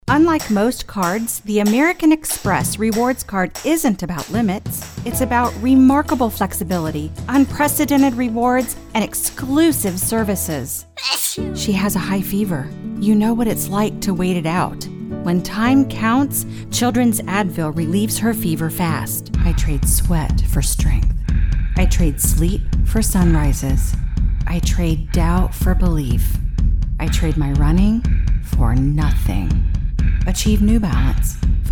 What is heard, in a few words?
Commercial